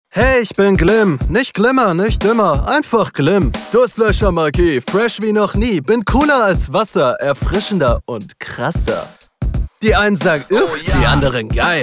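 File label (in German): Werbung Lässig Selbstsicher, Fresh und Lässig, Commercial, German; LOFT VOICES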